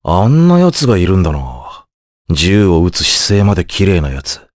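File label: voice dia, voices heroes, Japanese; Mitra; kings raid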